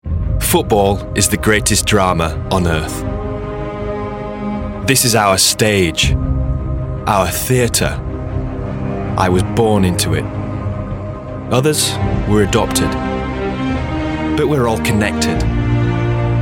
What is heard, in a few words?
documentary